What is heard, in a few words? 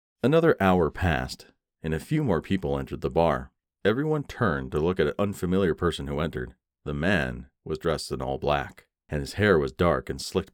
Audio Book, narrations